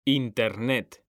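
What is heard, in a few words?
"internet", Spanish, male; mekanta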